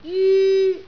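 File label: "jyu1", Cantonese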